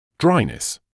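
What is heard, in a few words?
"dryness", British English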